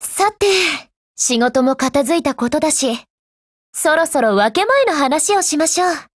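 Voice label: Japanese, Voice; OPERATIONOVER; GF Alarm; Px4Storm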